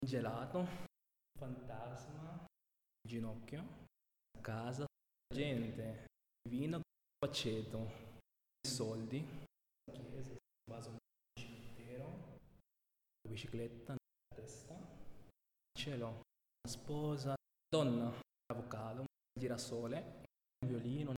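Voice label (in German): Zusammengefugte Worter Schnell Italienisch, male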